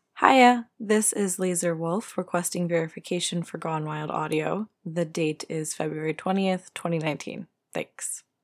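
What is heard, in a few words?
Female verification; GWA Verification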